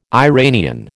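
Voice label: "Iranian", American English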